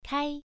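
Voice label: "kai", Mandarin Chinese, first tone, pronunciation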